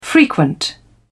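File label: "frequent", British English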